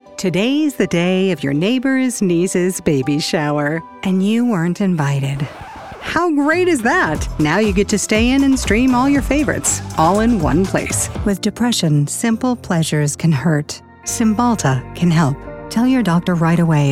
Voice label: English Commercial